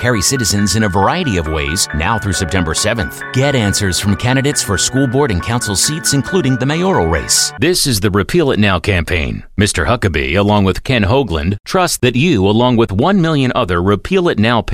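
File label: TV Spot Voice Over POLITICAL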